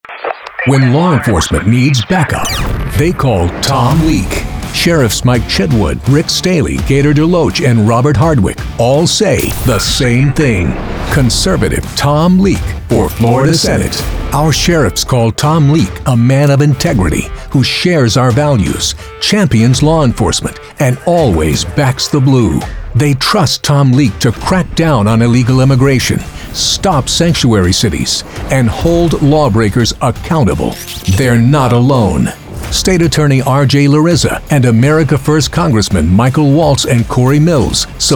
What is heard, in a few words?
Static Radio; Tom Leek